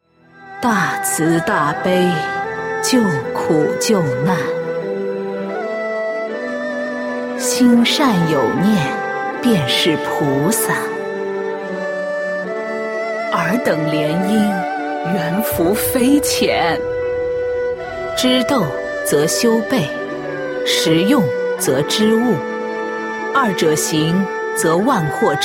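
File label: middle-aged and elderly, female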